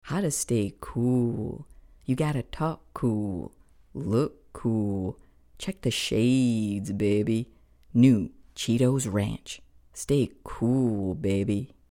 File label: English fun voice